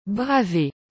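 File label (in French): "braver", French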